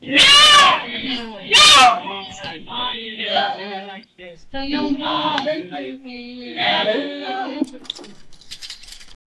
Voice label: couple arguing and making, fighting